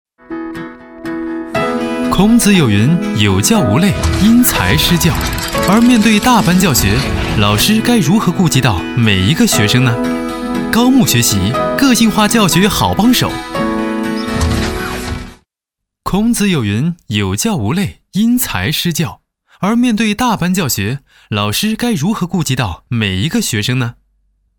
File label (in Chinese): 男 MG动画 学习 大班教学, 国语男声配音, 青春时尚; 高木学习